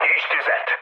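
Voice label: attack position, mood med, Hungarian; speech; War Thunder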